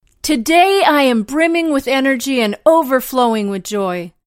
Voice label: Female Brimming